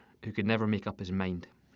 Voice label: scottish